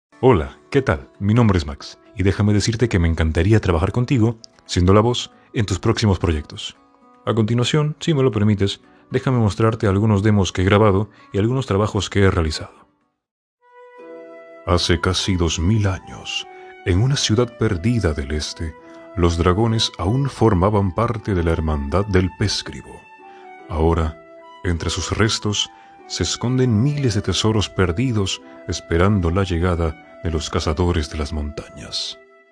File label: Spanish (LA) Male